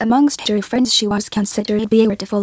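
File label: English Female, Synth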